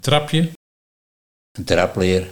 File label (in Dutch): "Trapleer", Nieuw lekkerlands dialect; trapje